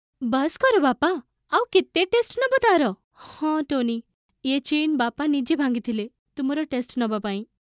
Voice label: Oriya female

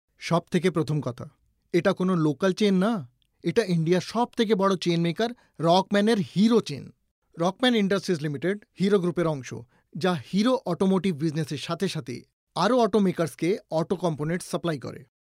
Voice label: BENGALI MALE

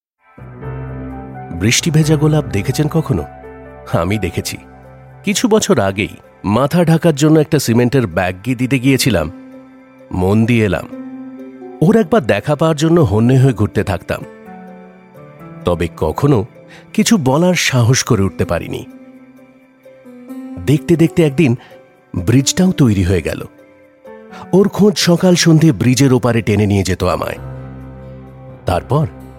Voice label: BENGALI M

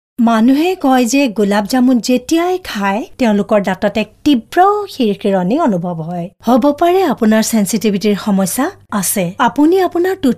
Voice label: Assamese Female

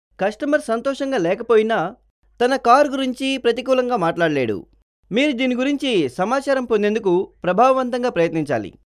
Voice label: Telugu male

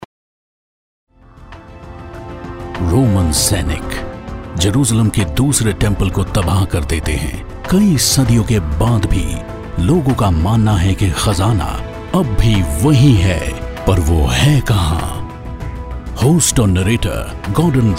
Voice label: Hindi VO Sample

